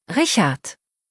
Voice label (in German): "Richard", deutsch, jungennamen